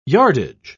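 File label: "yardage", British English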